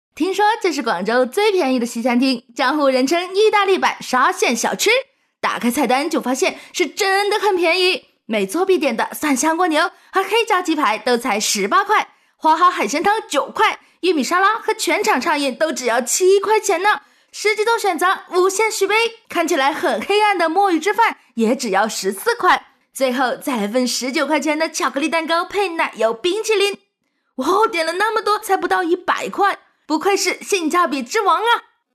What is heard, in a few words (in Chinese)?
女, 普通话; 萨莉亚